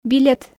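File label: "билет", Komi, female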